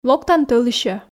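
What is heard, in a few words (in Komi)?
локтан тoлысьӧ, Komi, female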